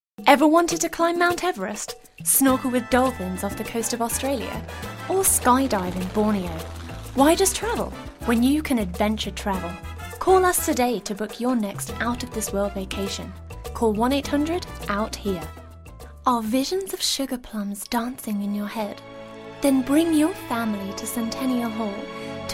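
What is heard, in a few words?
British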